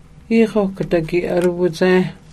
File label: sage, male, Hidatsa; iixogadagiʔagucawuhci